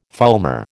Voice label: "fulmar", American

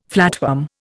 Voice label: "flatworm", Indian

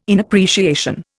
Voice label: "inappreciation", Indian

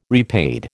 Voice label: "repaid", American

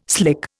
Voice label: "slick", Indian

